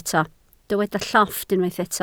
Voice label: "llofft", Welsh